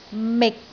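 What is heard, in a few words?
"mik6", Cantonese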